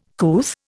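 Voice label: "couth", Indian English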